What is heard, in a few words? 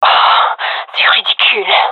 scared, gendarmerie fem